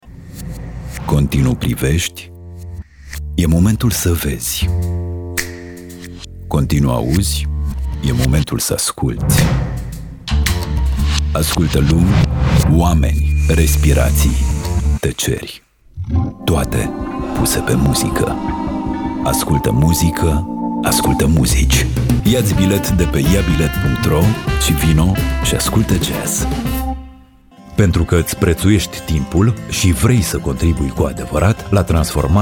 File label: RO, Commercial; GoLocalise